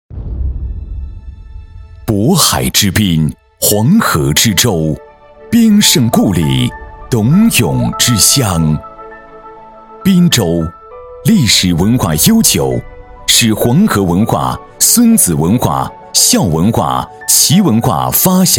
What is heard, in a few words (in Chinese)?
男 大气浑厚; 教育创新